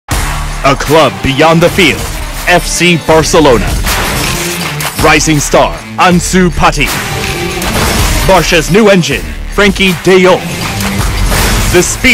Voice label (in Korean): 영어 남 강한 톤; Rocket Battery x FC Barcelona